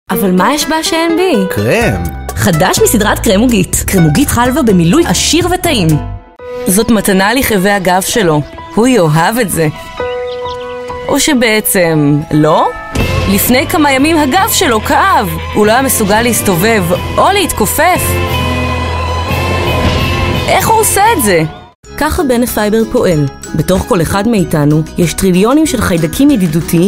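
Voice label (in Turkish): İbranice Kadın Ses; seslendirme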